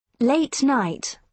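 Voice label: "late night", British English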